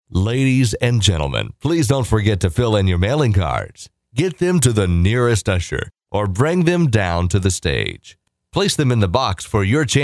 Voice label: Pitch dry